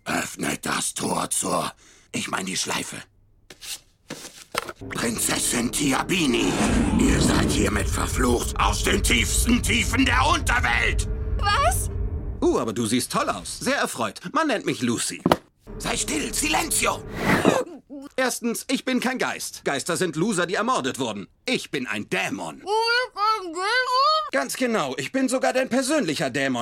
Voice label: SYNCHRON Hauptrolle; voice; Disenchantment; Luci

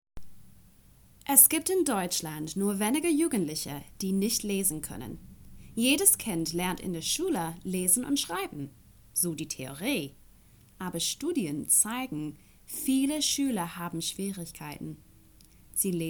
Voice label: deutsche Stimmprobe

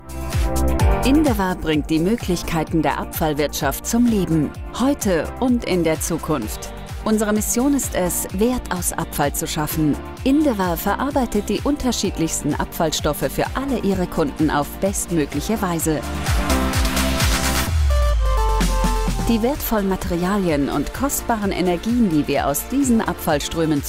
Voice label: Video sympathisch informativ; Indaver

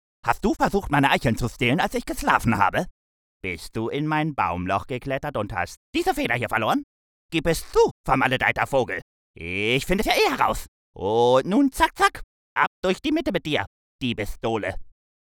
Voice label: Cartoon Charakter Eichhoernchen; LOFT VOICES

